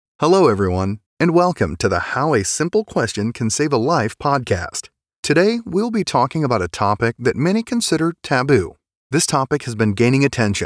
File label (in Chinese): 外籍英男 年轻磁性